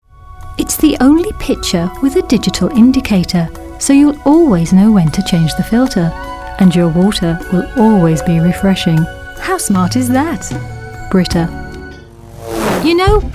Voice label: Commercial V O